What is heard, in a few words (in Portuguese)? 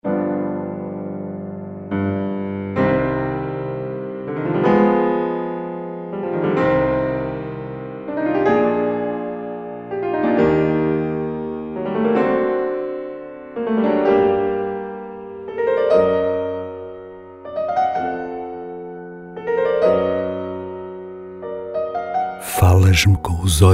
voz publico